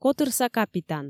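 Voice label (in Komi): "котырса капитан", Komi, female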